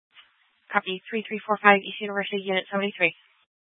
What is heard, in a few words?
Fire Departments Mesa Simulcast; TOPAZ